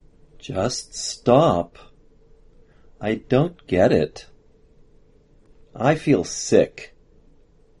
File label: aspirated release